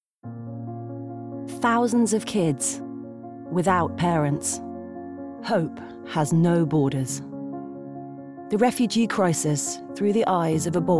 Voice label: Promo

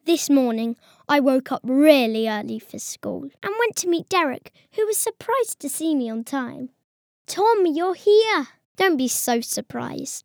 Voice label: Narration

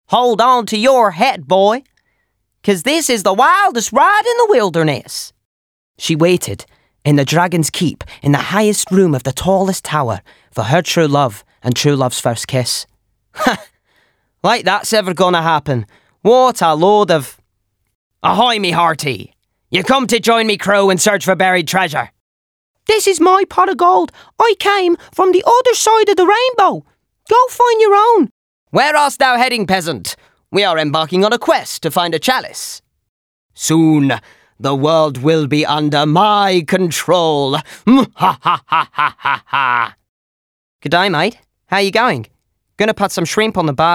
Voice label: Character

